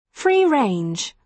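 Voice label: "free range", British English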